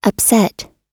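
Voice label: "upset", English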